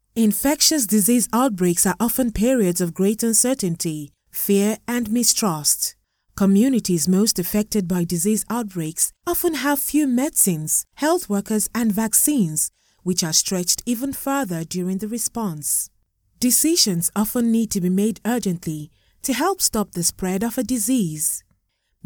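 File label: West African English, female, narration; accent of africa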